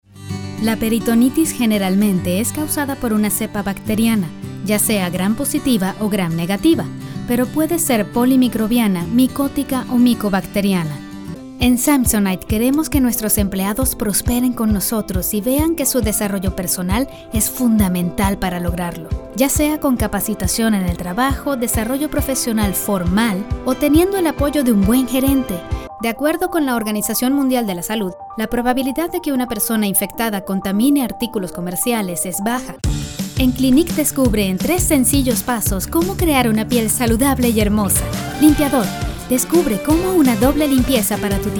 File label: Medical eLearning Spanish